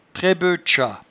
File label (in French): "trebeutchat", patois jura